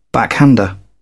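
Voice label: "backhander", British English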